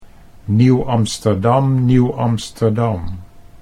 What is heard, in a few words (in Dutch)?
"Nieuw Amsterdam", Dutch